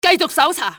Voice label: keep looking, cantonese; XBOX; enemy

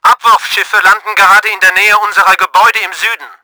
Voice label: DROPSHIP, german; Battle Engine Aquila

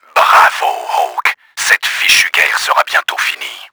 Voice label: happy, french; KRAMER; Battle Engine Aquila

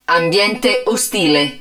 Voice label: HUD HOSTILE ENVIRONMENT, Italian; XBOX; BattleEngineAquila

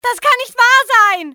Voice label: cant be, civ fmale; XBOX

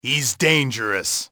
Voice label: "dangerous", english male; XBOX; enemy

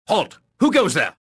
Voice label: suspicious; XBOX

